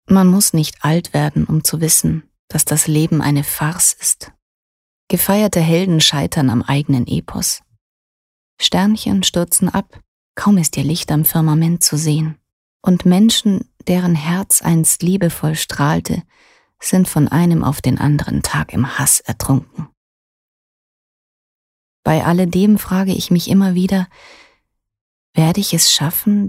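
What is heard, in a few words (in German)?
Schauspiel Weltschmerz Sanft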